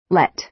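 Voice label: "let", American English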